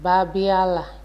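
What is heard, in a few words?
"babiala", Nigerian Pidgin, female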